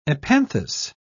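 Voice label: "epanthous", English, British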